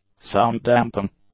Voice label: "sound damping", english; nouns